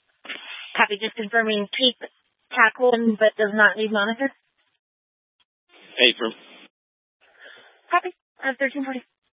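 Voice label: Incident Tactical 1, Scanner audio recording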